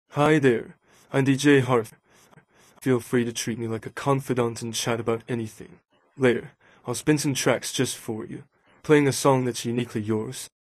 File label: welcome eng